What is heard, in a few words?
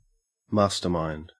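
"mastermind", Australian English; pronunciations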